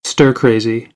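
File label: "stir crazy", American, English; AmericanBritish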